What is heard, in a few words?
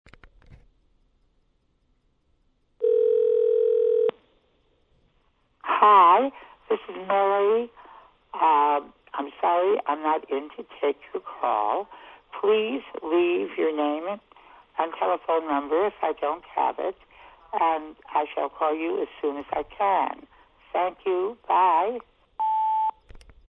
Phone Message